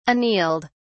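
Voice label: "annealed", British English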